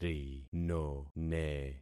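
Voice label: "rinone", concatenated syllables; Affixing experiment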